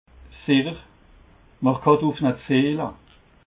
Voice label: "sicher", alsace

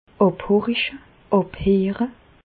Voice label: "àbhoriche", Bas Rhin; medecine; ausculter

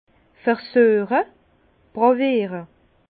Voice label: "versueche", Bas Rhin; vin; goûter déguster

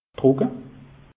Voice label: "trucke", alsace; meteo

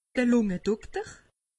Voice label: "lungedokter", alsace; medecine

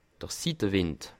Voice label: "sitte", alsace

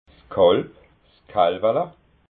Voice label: "kalb", alsace

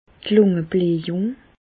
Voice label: "lungeblajung", Bas Rhin; medecine; emphysème

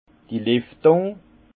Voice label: "liftung", alsace; vin; aération

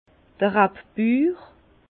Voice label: "rabbur", Bas Rhin; vin; viticuleur

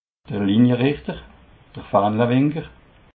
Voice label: "linierichter", alsace

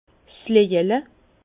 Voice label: "lojele", Bas Rhin; vin; tonnelet petit

